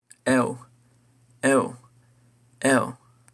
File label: portuguese eu